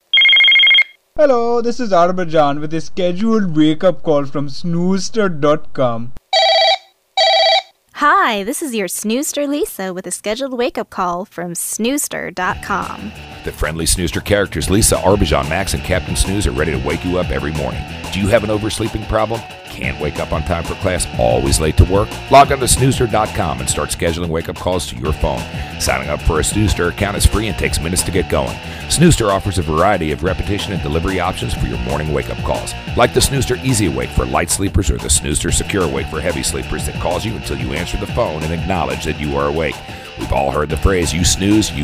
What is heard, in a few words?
Snoozester radio spot